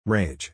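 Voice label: "Rage", English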